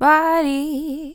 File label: Body, Vocals, Dry; GhostHack